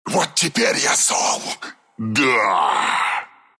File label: level up, ru; dota2